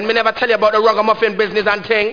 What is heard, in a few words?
babble